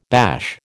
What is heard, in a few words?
"bash", American